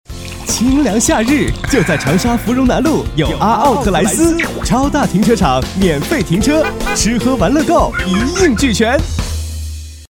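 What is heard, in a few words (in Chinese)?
男国大音-广告样音-年轻时尚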